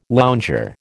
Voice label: "lounger", American